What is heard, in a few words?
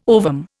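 "ovum", Indian English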